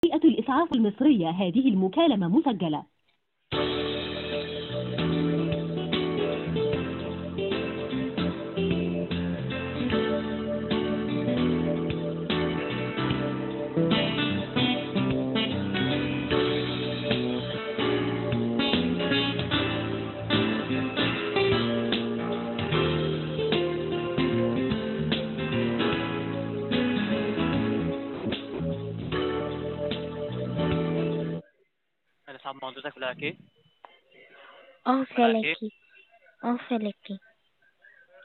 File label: Call recording Emergency number